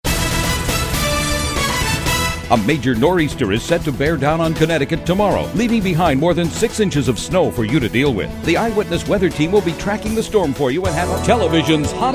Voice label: tv promo